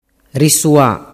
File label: "risua", dialettu